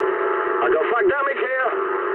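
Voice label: damaged anti-aircraft mood medium, speech English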